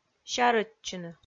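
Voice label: "сярӧдчыны" Komi, female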